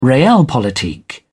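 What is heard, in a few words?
"realpolitik", British English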